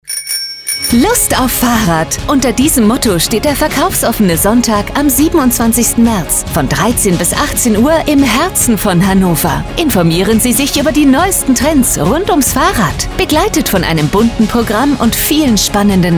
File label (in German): Verkaufsoffener Sonntag Radiospot